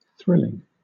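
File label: "thrilling", British English; pronunciations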